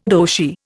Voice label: "Roshi", Indian